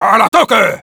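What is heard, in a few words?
battle cry, Spanish; "¡Al ataque!"; Sniper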